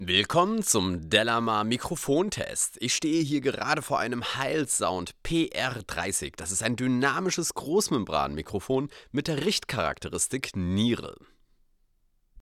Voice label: speech m; heilsound pr30